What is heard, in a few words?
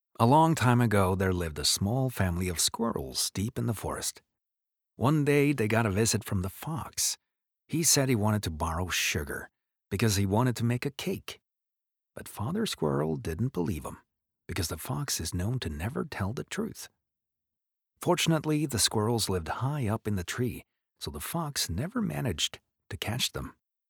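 Norwegian, English, US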